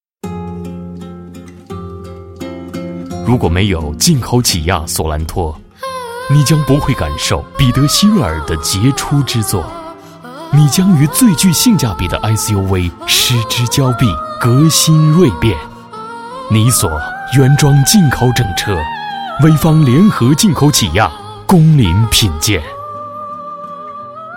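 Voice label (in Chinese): 广告低调品质; 起亚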